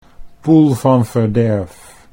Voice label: "poel van verderf", Dutch